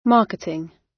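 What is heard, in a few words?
"marketing" British; Business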